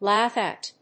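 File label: "laugh at", English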